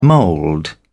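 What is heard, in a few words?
"mould", British English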